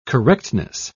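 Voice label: "correctness", British English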